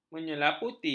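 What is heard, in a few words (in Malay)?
"menyelaputi", Malay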